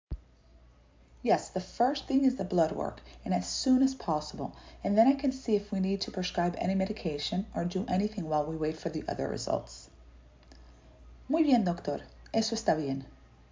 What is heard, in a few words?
Medical Certified Oral Spanish Practice